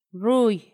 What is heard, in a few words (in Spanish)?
"caliente", Paipai; Vocabulary; INALI